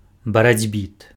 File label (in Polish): "барацьбіт", język białoruski; baracʹbìt; rzeczownik, rodzaj męski; bojownik